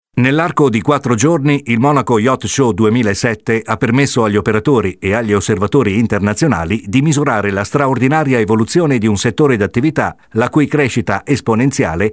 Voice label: Voice Over, yacht show; monaco